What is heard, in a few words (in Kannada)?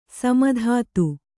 "ಸೀಮಿತತೆ", Kannada